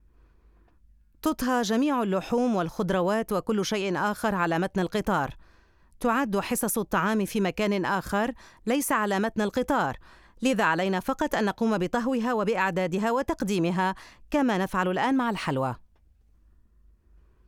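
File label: arabic female narration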